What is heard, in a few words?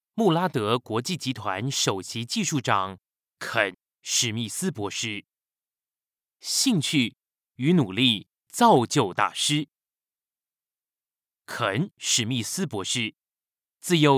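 chinese mandarin male narration; voice demos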